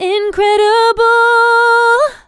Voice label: "Incredible" G# maj, Vocals, One-Words; GhostHack

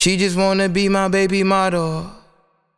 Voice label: Phrase Wet - Baby Model - 160 BPM, Vocal; Vocal Phrases; Cymatics; Euphoria Vocal Sample Pack

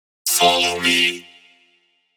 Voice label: Vocal 140 - Follow Me (F); One-Shots; Future Vocals; Ghosthack; Color Bass Essentials

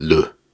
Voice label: Syllables and Imaginary Words, Hard, Viking Vocal

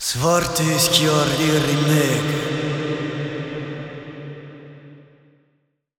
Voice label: Spoken Vocals Am 70Bpm (WET); Construction Kits; Ghosthack; SkyRealm; Kit 20 - Sea Of Souls

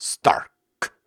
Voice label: Viking Vocal Syllables and Imaginary Words, Hard

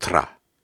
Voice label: Soft Syllables and Imaginary Words, Viking Vocal

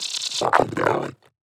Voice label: Psychedelic Glitch, Voices; Psytrance; Words; Ghosthack; Psytrance Visions Volume 2

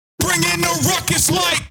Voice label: Predrop Processed Ruckus 140 BPM, Pre-Drop Vocals; Cymatics; Euphoria Vocal Sample Pack

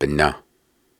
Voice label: Syllables and Imaginary Words, Hard, Viking Vocal; Viking Vocal Builder

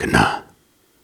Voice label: Soft Syllables and Imaginary Words, Viking Vocal

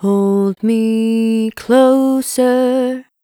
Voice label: G Major, Vocals, Phrases; GhostHack

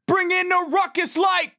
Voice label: Predrop Dry "Ruckus" - 140 BPM; Cymatics; Euphoria Vocal Sample Pack

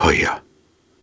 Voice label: Soft Syllables and Imaginary Words, Viking Vocal

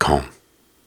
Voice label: Soft Syllables and Imaginary Words, Viking Vocal

